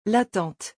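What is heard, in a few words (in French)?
"La tante", French; vocabulaire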